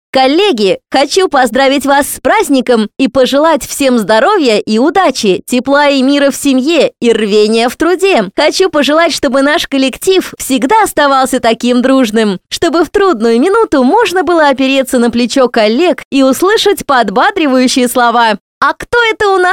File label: demo female